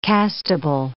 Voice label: "castable", English